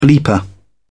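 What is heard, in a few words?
"bleeper", British English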